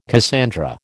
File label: "Cassandra", American